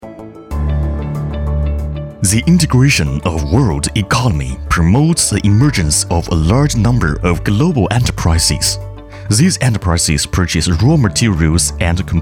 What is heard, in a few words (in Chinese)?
英文 科技 动感